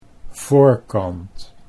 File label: "voorkant", Dutch